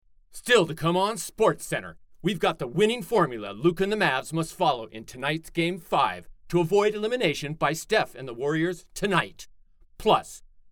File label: foreigner; 元気なスポーツキャスター風